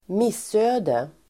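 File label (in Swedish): "missöde", Swedish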